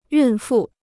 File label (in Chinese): "孕妇", Chinese